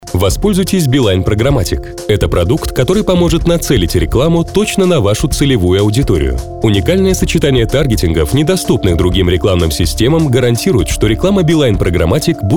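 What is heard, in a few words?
Russian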